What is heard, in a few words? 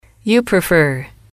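you prefer, female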